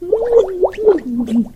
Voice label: hurt

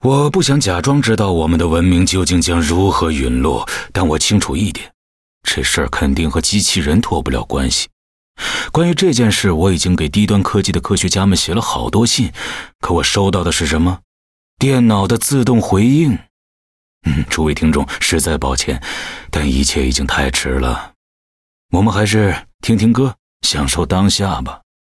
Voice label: Mandarin Robotics Level, 开局播报; 双点校园

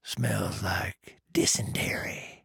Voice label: trader male dislike greeting

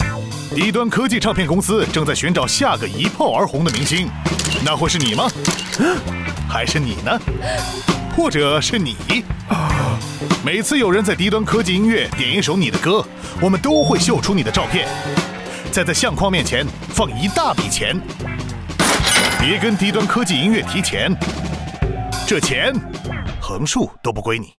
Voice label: Mandarin Ad; 双点校园; 电台广告; Bungle Records

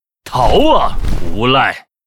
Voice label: yes attack, zh

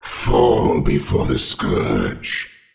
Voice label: warcry; Cryptfiend

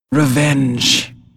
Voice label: Hero female demon hunter yes attack, en

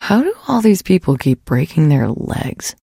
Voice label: trader monolog; 商人杰恩（七日杀）

